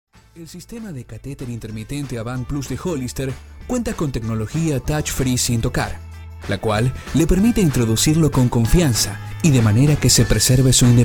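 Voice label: Latin American Spanish, male, elearning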